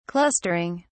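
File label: "clustering", English, British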